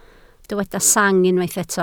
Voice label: "sang", Welsh

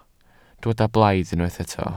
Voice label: "blaidd", Welsh

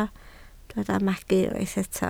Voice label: "magu", Welsh

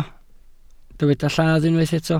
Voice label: "lladd", welsh

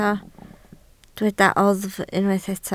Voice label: "oddf", Welsh

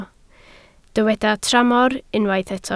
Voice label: "tramor", Welsh

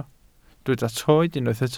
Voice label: "troed", Welsh

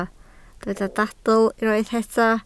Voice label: "dadl", welsh

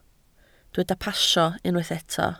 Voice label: "pasio", Welsh

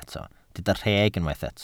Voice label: "rheg", Welsh

